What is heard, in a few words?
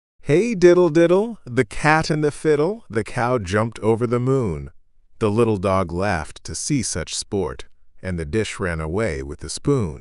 male US; Hey Diddle Diddle